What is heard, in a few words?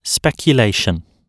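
"speculation", British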